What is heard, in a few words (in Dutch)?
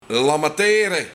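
"Lammetere", Luik, Klagen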